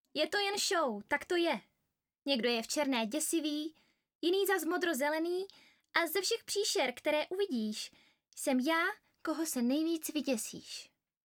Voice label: czech